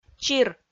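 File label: "чир", Komi-Zyrian, female; kpv audio dict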